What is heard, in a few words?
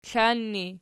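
"klan" ergative, Archi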